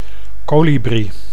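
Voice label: "kolibri", Dutch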